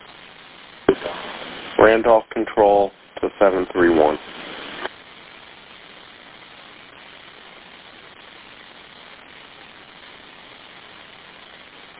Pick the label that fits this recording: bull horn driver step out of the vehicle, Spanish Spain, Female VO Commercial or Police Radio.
Police Radio